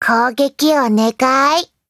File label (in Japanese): VO jp